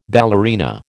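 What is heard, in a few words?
"ballerina", American English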